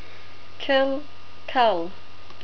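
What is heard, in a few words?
"kill", New Zealand English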